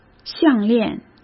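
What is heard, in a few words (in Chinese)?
"项链", Mandarin Chinese; hsk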